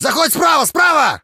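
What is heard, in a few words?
talk, Ukrainian; monsters; human; combat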